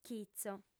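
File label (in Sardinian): "chitzo", female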